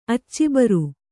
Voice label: "ಅಚ್ಚಿಬರು", Kannada